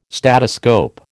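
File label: "statoscope", American English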